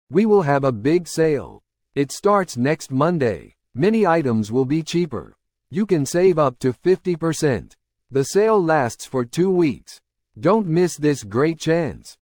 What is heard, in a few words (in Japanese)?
トーク ノーマル